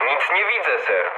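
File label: Polish chat; War Thunder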